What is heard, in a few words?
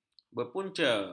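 "berpunca", Malay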